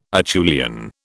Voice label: "Acheulian", British